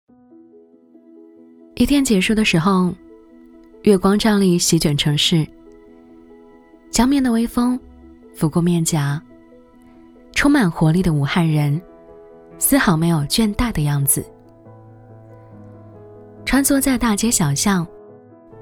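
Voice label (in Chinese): 女国大音-【微电影】; 武汉微电影; 我们的故事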